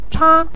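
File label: "cha" Mandarin Chinese, neutral tone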